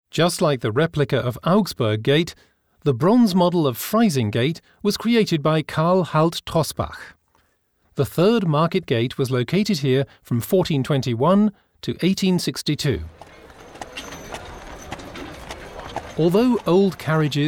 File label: Audioguide; Dachau; Freising Gate